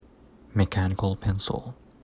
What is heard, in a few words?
"mechanical pencil", English